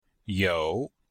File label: "you3", Chinese